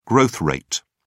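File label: "growth rate", British English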